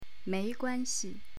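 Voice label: "mei2guan1xi", Chinese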